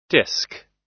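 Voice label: "disc", American English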